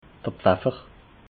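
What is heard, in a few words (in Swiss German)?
"pfaffer", Alsatian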